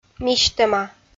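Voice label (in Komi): "мисьтӧма", Komi, female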